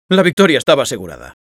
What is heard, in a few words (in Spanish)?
Spy cheers, es